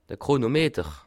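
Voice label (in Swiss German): "chronometer", Bas Rhin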